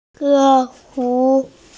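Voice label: "e4yu2", Mandarin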